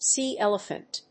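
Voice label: "sea elephant", English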